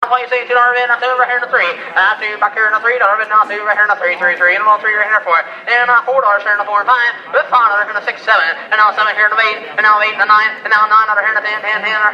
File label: Auctioneer